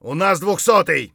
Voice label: friendly down; Escape from Tarkov